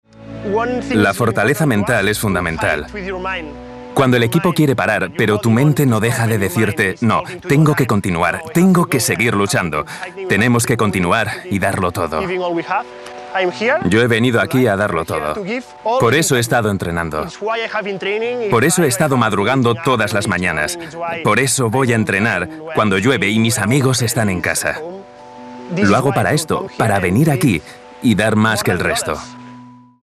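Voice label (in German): Spanish, Fremdtake Dynamisch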